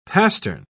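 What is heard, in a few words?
"pastern", British English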